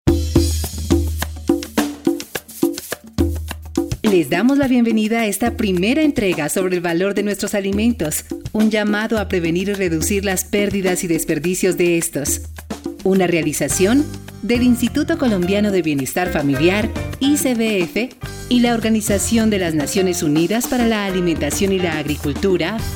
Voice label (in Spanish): E Learning; Profamilia